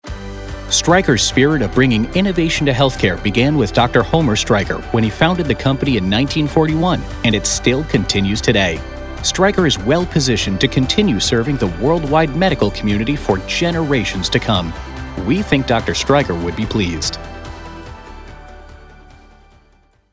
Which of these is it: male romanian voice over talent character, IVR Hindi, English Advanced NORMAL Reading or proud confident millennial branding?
proud confident millennial branding